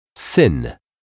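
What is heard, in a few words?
"syn", Polish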